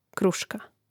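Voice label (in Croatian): "kruška", Croatian; Mrežnik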